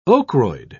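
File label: "ochroid", English, British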